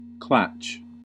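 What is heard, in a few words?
"klatch", American English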